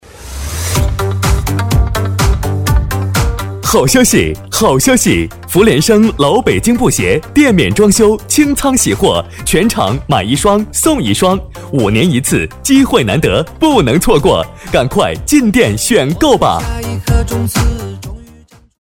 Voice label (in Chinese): 【促销】布鞋男